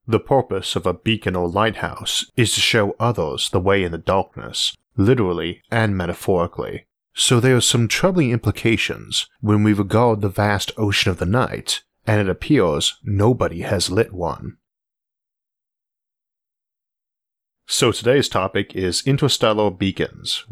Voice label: narration only; isaac arthur; lien beacons